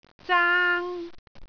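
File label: "zaang1", Cantonese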